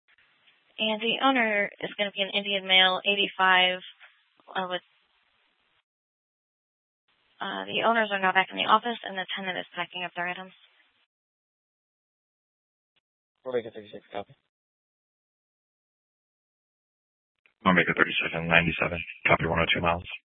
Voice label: Mesa PD Central Dispatch, Scanner

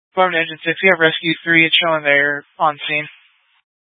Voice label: Fire Dispatch; Phx PD Fire Dispatch; C- Chandler; K8 - FDCOM 8 - South; RWC

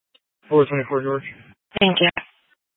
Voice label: Police Dispatch

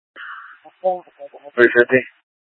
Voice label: Mutual Aid-Intersystem Interop B, scanner

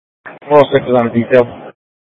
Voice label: Scottsdale PD Sth Dispatch, scanner

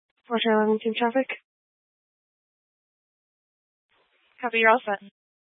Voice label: Mesa PD Dispatch

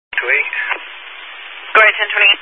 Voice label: Highway Patrol, scanner; DPS

